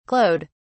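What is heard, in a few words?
"glowed", English, British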